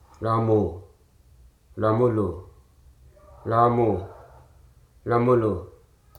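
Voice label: "jittery", English, Hruso dictionary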